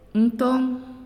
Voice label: "Water", Naasioi